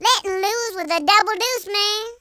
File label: "perfect", Gangsta; speech